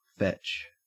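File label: "fetch", Australian English; pronunciations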